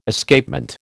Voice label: "Escapement", American